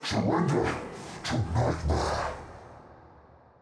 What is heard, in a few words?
Midfight Stereo; NPC; Malachai Combat